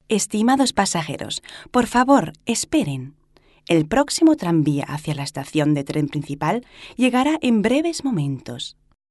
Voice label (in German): Nahverkehrsansagen span.